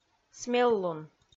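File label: "смеллун", Komi, female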